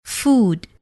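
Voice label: "food", English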